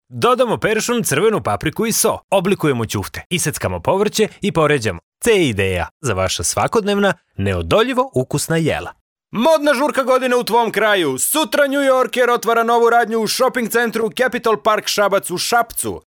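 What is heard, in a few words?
Serbian male voice over, sales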